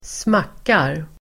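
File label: "smackar", Swedish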